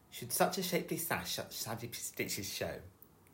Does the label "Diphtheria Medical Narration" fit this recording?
No